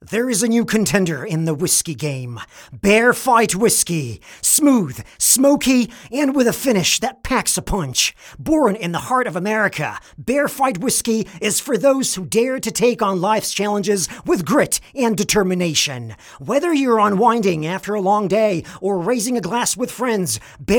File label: accent USA; Bear Fight Whiskey